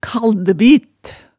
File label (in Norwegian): "kaldbit", hemsedalsmaalet tale